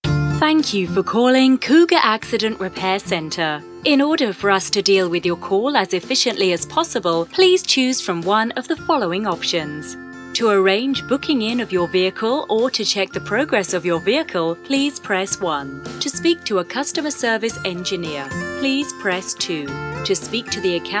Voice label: On Hold; Voice-over demos; on hold voices

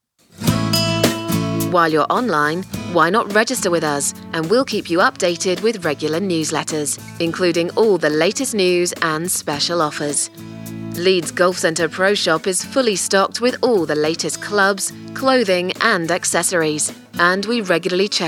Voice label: On Hold; Voice-over demos; on hold voices

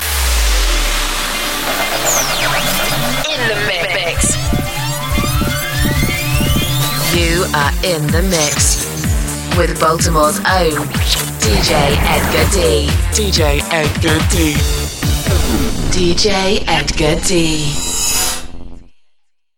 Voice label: dj intro; Voice-over demos

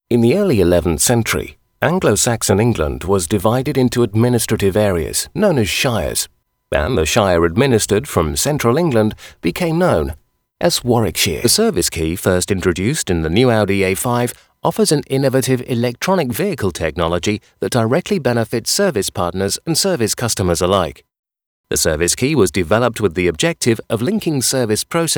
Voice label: Corporate, UK; Voice-over demos

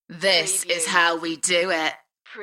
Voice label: UK female; dance; beanstalk audio

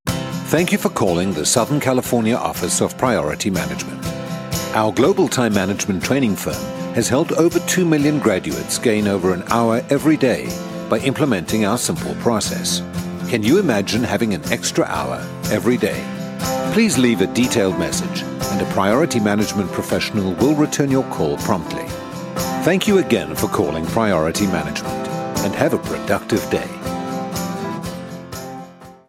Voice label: On Hold; Voice-over demos; on hold voices

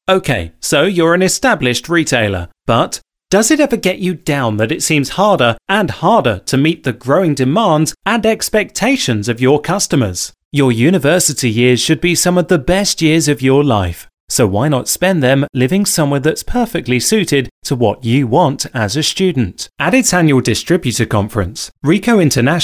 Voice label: Corporate, UK; Voice-over demos

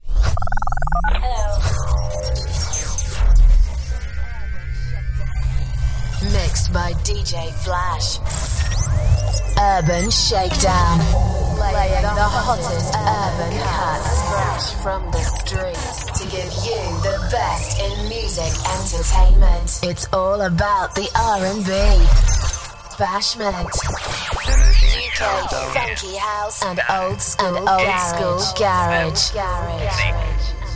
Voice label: dj intro; Voice-over demos

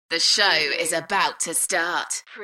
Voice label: UK female, DJ Vocal; Shows & Events

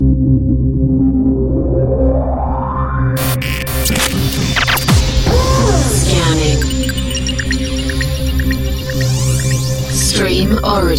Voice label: dj intro showcase, DJ Imaging; Voice-over demos

